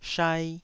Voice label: "shai2", taiwanese Syllables